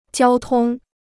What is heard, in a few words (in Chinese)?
"交通", Chinese